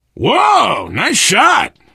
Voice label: nice shot, biker, survivor voice; Left 4 Dead 2

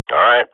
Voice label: radio bot reponse positive, Counter-Strike swat; Global Offensive; player vo

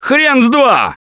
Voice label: reaction negative, russian; Gambler

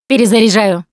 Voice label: reloading, russian, Survivor Voice Producer

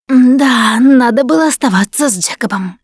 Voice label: going to die, russian; Producer

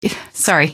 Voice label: "sorry", female; npc; Garry's Mod; hl2

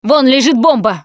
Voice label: spot grenades, russian, Survivor Voice Producer

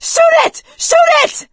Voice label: battle cry tank, survivor voice; Left 4 Dead 2; producer

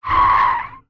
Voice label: feral strike vox; Beyond the Sword; Units

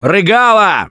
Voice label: warn boomer, russian; Voice; Gambler

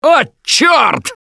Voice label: boomer reaction, russian; Gambler

